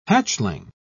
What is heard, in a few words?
"hatchling", pronounce